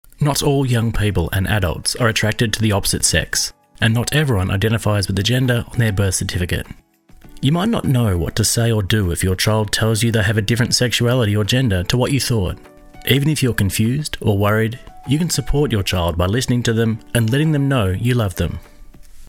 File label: E-Learning / Parenting Health - Services, Natural Male Voice